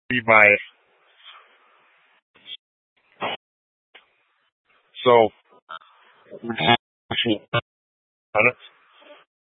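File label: Incident Tac 3, Scanner audio recording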